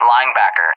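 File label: "Linebacker", English, USA; AirDefence; DCS; Allied Flight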